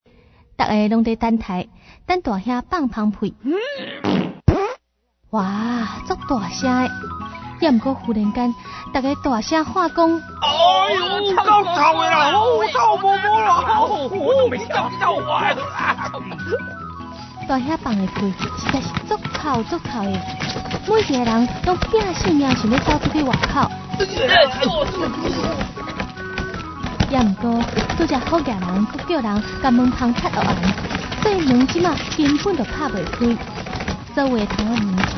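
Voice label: story farting taiwanese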